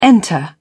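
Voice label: "enter", British English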